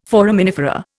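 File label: "foraminifera", Indian English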